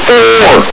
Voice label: "four!", Combine soldier; hl2